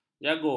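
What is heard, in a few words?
"jagur", Malay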